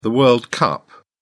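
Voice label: "world cup", British English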